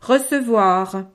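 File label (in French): "recevoir", French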